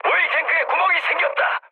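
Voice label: Korean damaged oil mood high, speech; War Thunder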